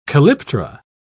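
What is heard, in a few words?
"calyptra", British English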